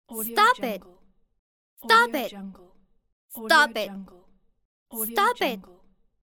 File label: girl voice stop it pack